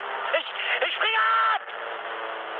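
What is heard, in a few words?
German bailout mood high, speech; War Thunder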